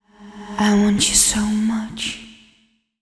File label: fem spo, Vocals; Mutekki Media; Diamond Vocals 2